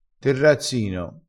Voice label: "terrazzino", vocabolario dialettale crespinese

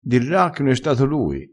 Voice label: "dirra", dialettale crespinese

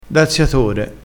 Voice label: "daziatore", vocabolario dialettale

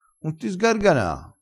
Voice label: "sgargana", vocabolario dialettale

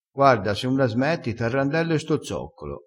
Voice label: "arrandella", crespinese

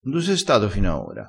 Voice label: "du", crespinese

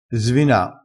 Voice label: "svina", crespinese

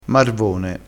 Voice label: "marvone", crespinese

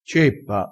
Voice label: "ceppa", crespinese

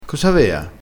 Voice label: "avea", crespinese

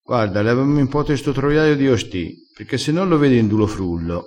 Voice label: "frulla", crespinese

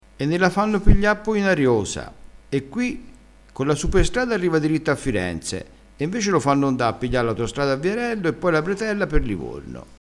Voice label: "ariosa", crespinese

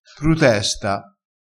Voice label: "prutesta", crespinese

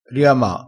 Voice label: "riama", crespinese, vocabolario dialettale

